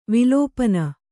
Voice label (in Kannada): "ವಿಲಪನ", Kannada